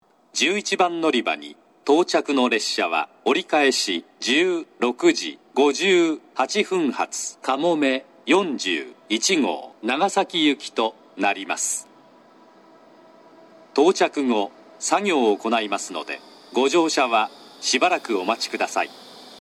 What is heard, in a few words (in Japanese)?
11番のりば接近放送; かもめ41号 長崎行き; takeo onsen; 折り返し